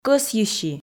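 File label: "кӧсйыси", Komi, female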